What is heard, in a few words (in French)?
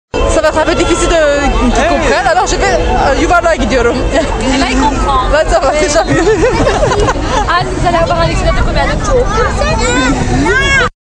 turc; La Cage aux Ours